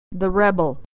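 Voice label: "the rebel", American English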